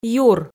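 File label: "юр", Komi, female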